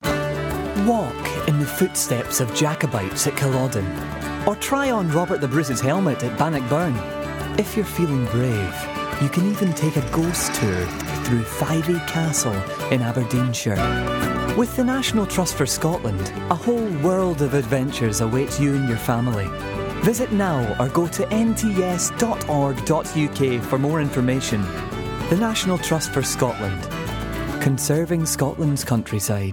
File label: Commercial, scottish voice over actor